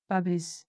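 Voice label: "Paves", Occitan Gascon; Prêts pour l’Òc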